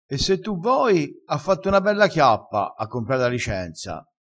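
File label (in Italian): "fa na bella", vocabolario dialettale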